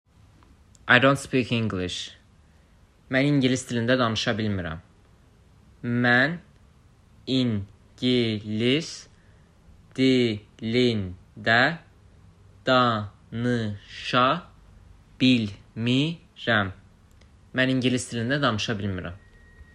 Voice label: I don't speak English. Mən ingilis dilində danisa bilmirəm, azerbaijani